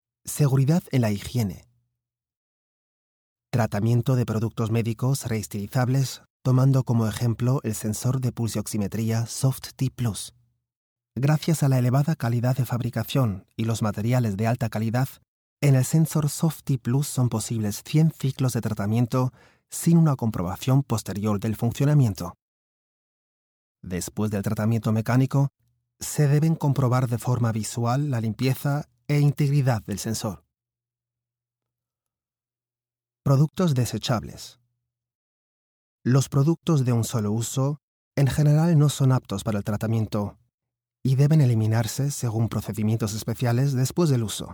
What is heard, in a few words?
image, spanisch sprecher